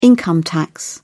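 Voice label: "income tax", British English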